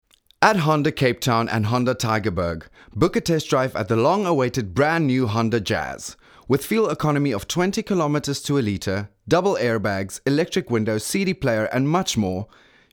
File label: hard sell